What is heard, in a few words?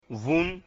"vun", mina